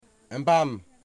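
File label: "Thank you", Wamut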